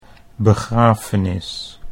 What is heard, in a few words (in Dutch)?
"begrafenis", Dutch